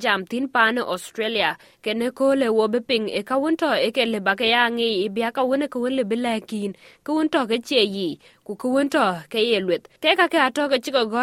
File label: dinka, podcast; Wël yekke tɛɛk bïc ke cë yith, ku wël luɛth kɔc thɛɛl wɛ̈i.; SBS Dinka